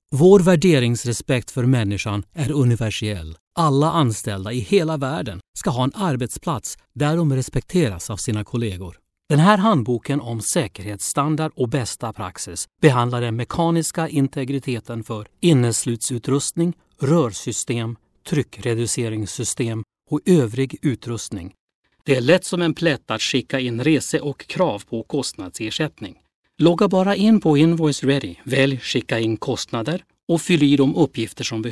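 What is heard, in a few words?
Swedish e-learning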